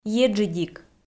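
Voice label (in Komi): "еджыдик", Komi, female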